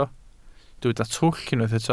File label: "twll", Welsh